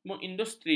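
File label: "mengindustri", Malay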